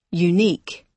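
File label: "unique", British English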